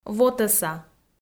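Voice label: "вотӧса", Komi, female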